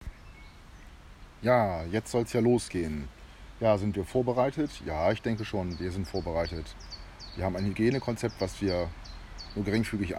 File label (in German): interview radio; seid ihr vorbereitet; Radio Bremen